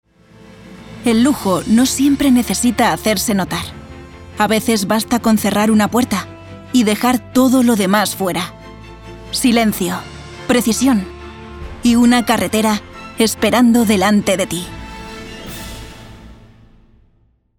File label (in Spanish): generica profunda